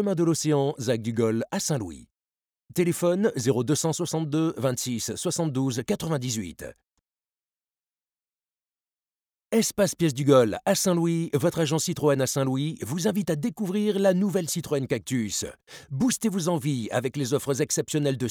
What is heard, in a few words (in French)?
Voix Pour Publicité